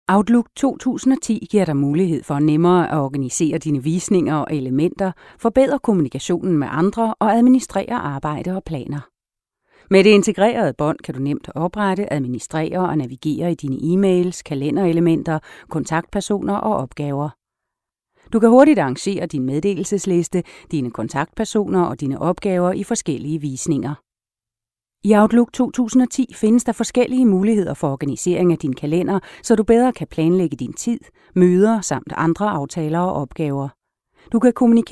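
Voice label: danish female narration, voice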